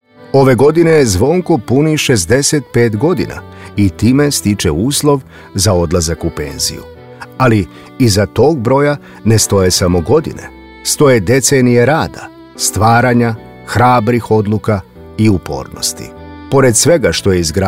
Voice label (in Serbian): citanje biografije; organizovanje proslava odlazak u penziju